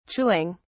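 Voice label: "chewing", British English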